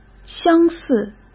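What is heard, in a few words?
"相似", Mandarin Chinese; chinese-flashcards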